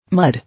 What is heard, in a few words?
"Mud", English